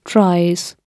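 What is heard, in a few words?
"tries", British English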